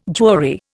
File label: "jury", Indian